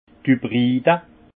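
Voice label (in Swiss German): "hybride", alsace Haut-Rhin; vin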